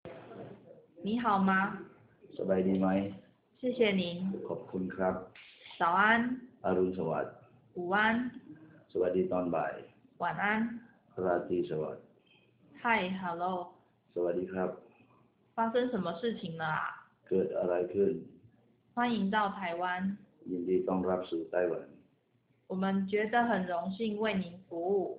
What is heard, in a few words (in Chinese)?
泰國語日常問候用語